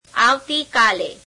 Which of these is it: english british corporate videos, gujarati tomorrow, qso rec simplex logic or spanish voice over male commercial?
gujarati tomorrow